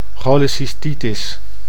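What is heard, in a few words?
"cholecystitis", Dutch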